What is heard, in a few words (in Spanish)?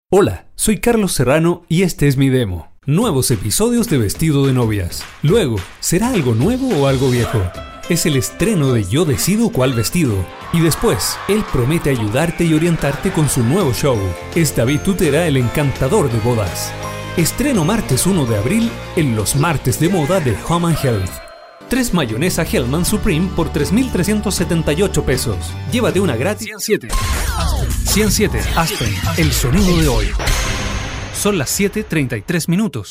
Locutor chileno